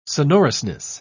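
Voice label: "sonorousness", British English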